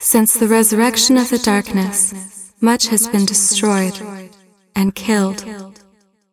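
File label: cinematic voice, Polish; lineage2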